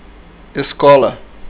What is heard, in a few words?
school, portuguese